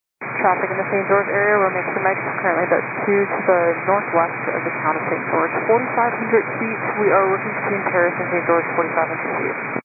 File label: 122.925 MHz; scanner radio